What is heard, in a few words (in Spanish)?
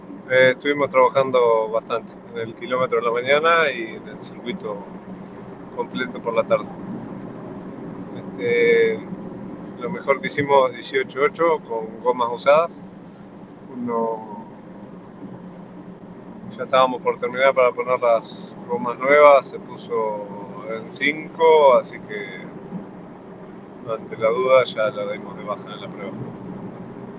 castellano nota